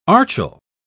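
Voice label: "archil", English, British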